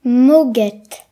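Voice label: "smoke", Breton; gcompris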